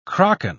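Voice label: "kraken", British English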